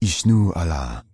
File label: Night Elf Male Standard Greeting